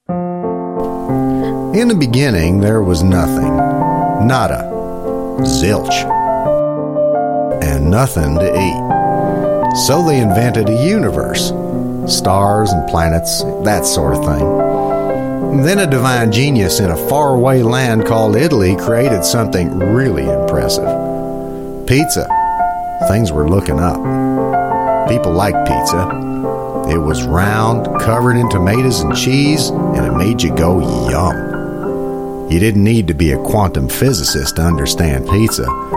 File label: US English; 7a Pizza Manifesto